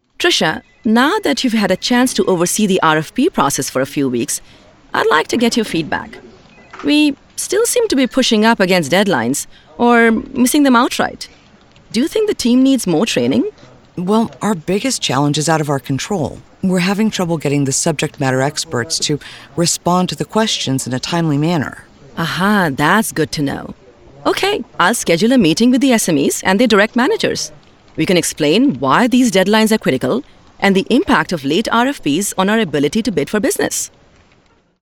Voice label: Interactive, elearning